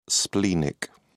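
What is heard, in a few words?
"splenic", British English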